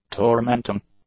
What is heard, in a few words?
"tormenting", English; adjectives